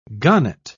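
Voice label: "gahnite", British English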